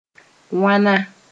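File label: "wãna", Mooré; some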